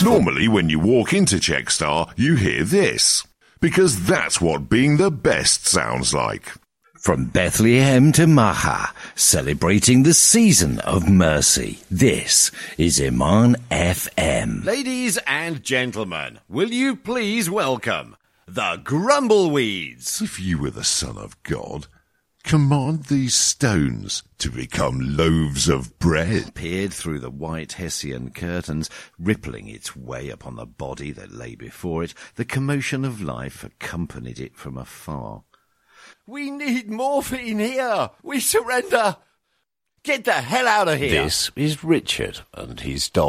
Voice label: dry; Voice realm

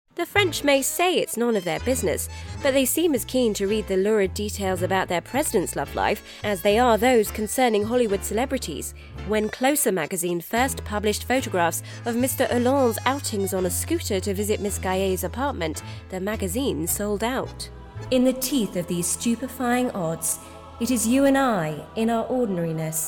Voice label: Narration and Documentary

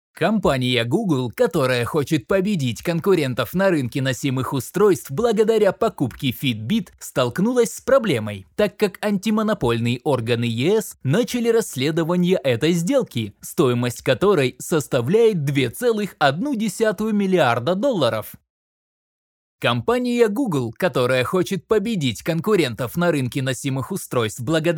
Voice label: Russian voice over; Google

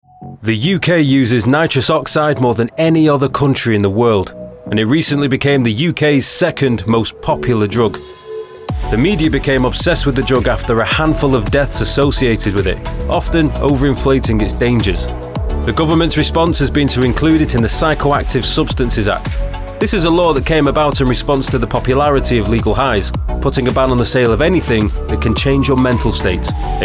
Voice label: TV Narrative

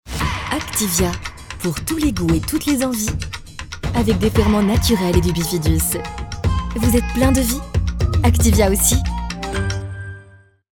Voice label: SPOT RADIO; ACTIVIA

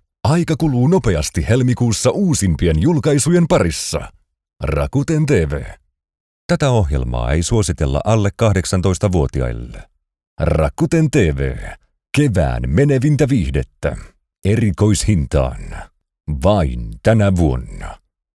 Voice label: TV Radio Streaming Tags

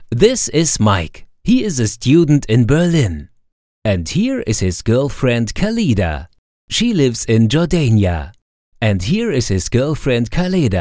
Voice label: English; GetCrissCross

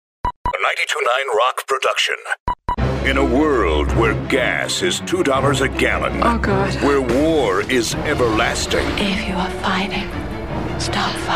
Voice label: Radio Imaging

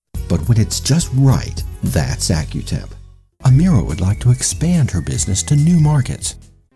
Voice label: Upbeat

